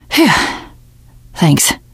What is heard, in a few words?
thanks, teen girl; survivor voice